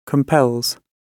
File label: "compels", gb